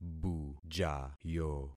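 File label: "bujayo", concatenated syllables; Affixing experiment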